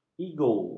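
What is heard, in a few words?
"ego", Malay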